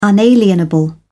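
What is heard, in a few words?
"unalienable", British English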